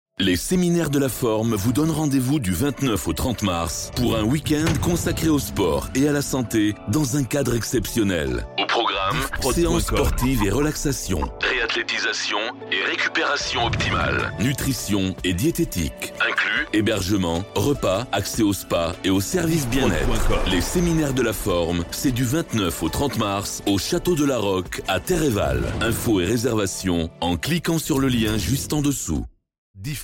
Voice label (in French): Spot Insta, publicite; Les seminaires de la forme